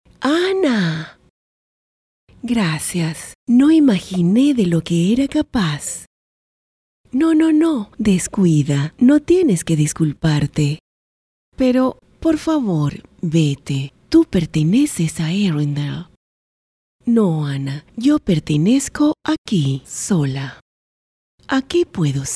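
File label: doblaje, Venezuelan Spanish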